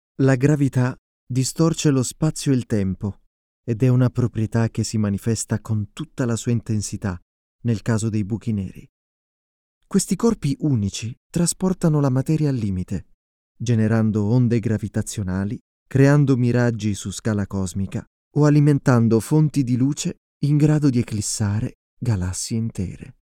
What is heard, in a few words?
italian documentaries